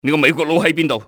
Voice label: where american, enemy cantonese male; XBOX